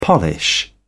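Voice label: "polish", British English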